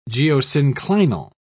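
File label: "geosynclinal", British English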